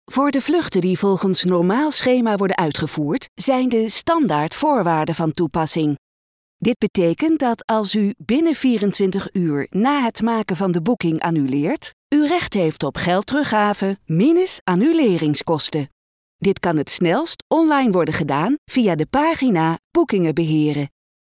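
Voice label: Dutch, female, announcements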